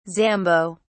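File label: "zambo", British English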